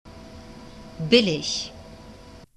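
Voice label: "billig", German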